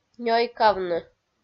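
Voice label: "нёйкавны" Komi-Zyrian, female; kpv audio dict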